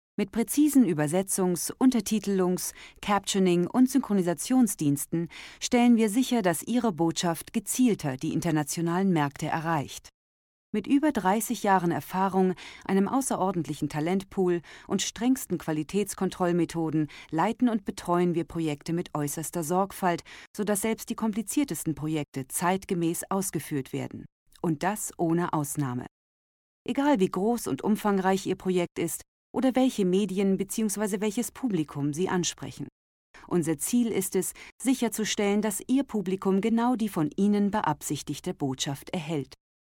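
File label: German, female, corporate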